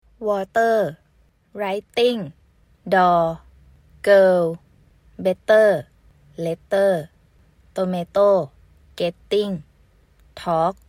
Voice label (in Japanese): タイ人 女性 音声 サンプル